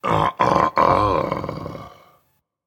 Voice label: Disagree, Dragon; fafnir